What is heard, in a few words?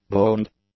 "boned", English; adjectives